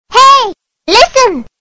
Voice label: hey bass boost

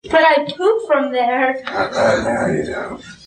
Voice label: but i poop from there reduced background noise

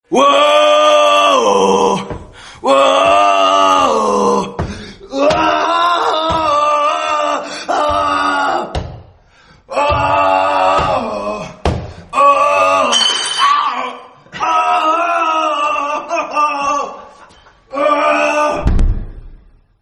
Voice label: pirate call no music

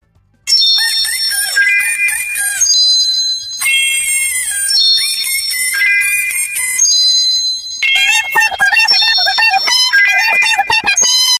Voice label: eh eh eh sped up

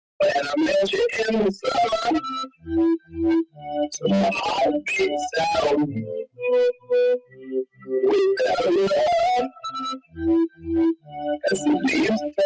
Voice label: very emotional

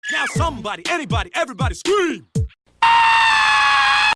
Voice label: somebody anybody everybody scream

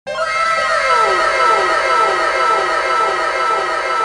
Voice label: wow echo

